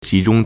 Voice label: "ji2 zhong1", Chinese

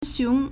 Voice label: "xiong2", Chinese

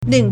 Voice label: "ling4", Chinese

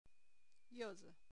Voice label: "youzi", Chinese

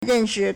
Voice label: "ren4 shi4", Chinese

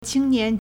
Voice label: "qing1 nian2", Chinese

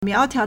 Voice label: "miao2tiao2", Chinese

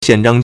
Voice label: "xian4zhang1", Chinese

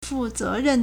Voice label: "fu4 ze2 ren4", Chinese

